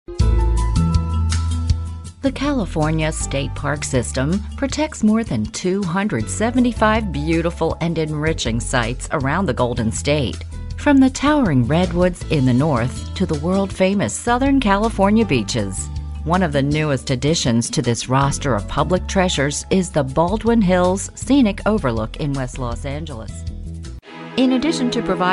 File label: Documentaries